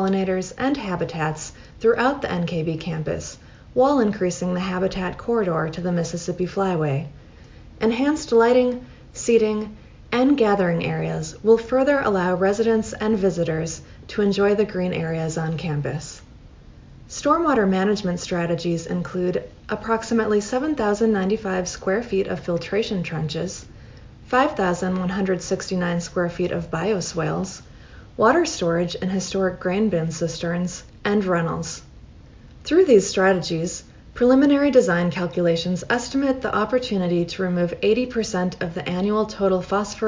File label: Plantings & Environmental Impact — English; nkb plantings